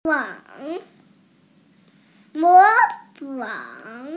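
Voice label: "imitate", Mandarin Chinese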